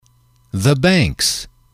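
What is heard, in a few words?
"the banks", English, male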